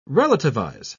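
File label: "relativize", British English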